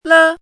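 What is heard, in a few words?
"lē", Chinese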